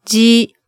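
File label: "dji", Japanese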